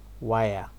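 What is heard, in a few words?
"wire", Nigerian Pidgin, male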